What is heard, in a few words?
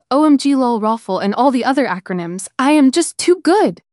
happy; fertig classic games; nicole